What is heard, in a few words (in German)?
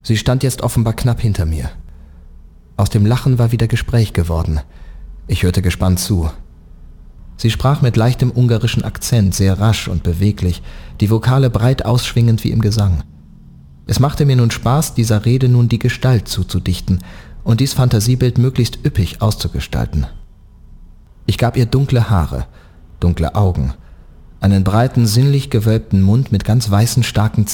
das weibliche element erzaehler